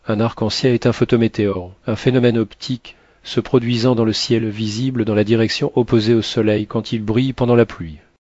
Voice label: speaker, fr FR; piper-voices